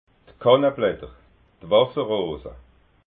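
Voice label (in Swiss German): "kanneblatter", Alsatian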